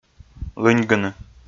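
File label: "лыньгыны" Komi, male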